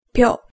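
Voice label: "pyo", Japanese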